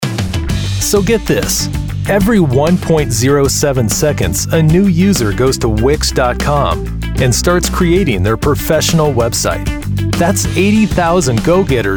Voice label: Explainer